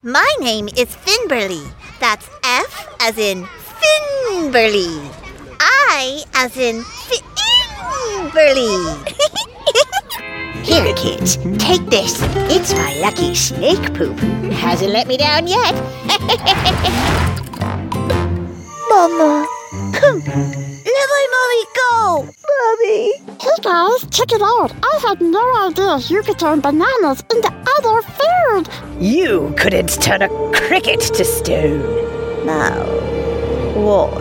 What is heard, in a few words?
american character